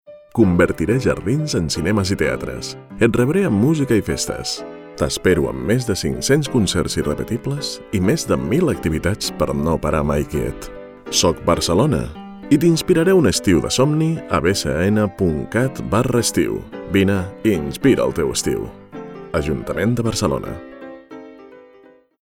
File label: male catalan tv comercial; ideasonora